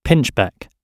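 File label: "pinchbeck", English; oxford api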